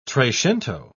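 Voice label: "trecento", British English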